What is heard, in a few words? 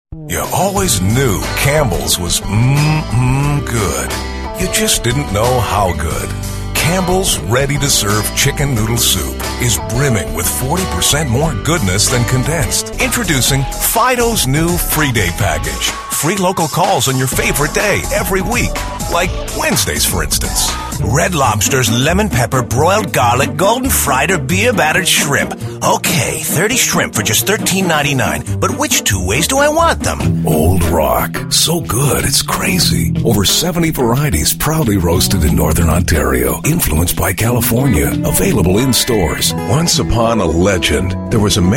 warm, male voice radio commercial samples